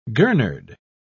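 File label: "gurnard", British English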